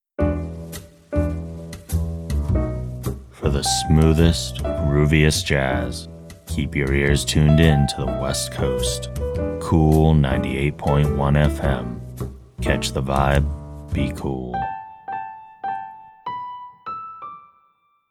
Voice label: promo; cool fm